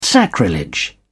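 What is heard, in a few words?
"sacrilege", English, British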